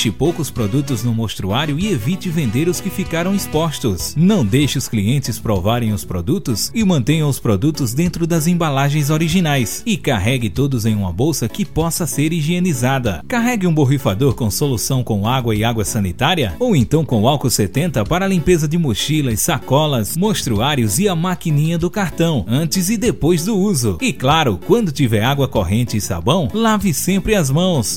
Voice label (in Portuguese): SPOT VENDEDOR AMBULANTE